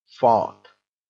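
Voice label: "fart", English; prd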